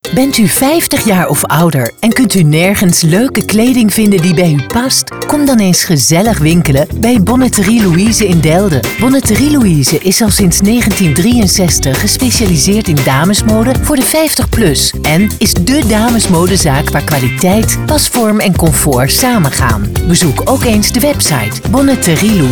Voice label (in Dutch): radio; radio oost; bonneterie louise